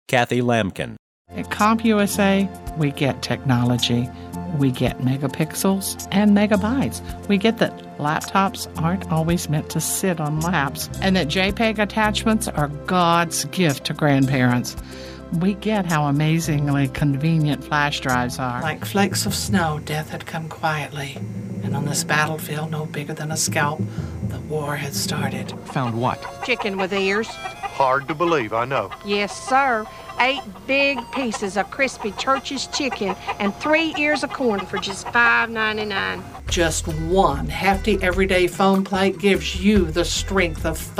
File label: VO DEMOS Women